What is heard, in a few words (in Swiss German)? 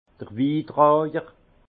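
"witraijer", Alsace Haut Rhin; vin; porteur de vins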